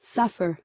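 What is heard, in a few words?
"suffer", US English; pronunciations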